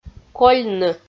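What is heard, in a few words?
"кольны" Komi, female; audio dict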